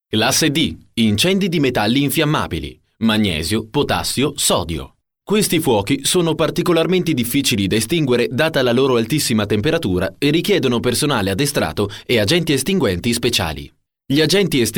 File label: e-learning